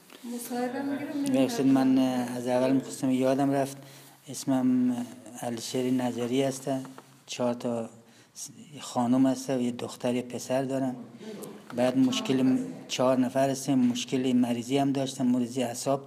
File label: Farsi